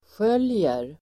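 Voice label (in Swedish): "skäljer", Swedish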